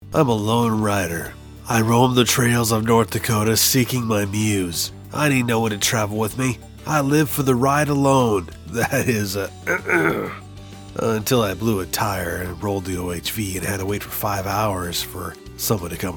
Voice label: Radio PSA